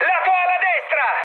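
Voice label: Italian right wing mood high, speech; War Thunder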